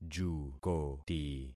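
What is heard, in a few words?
"jukoti", concatenated syllables; Affixing experiment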